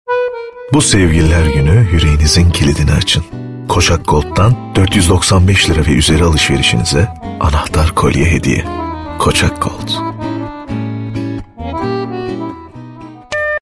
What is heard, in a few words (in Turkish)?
reklam; kocak gold